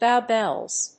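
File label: "Bow bells", English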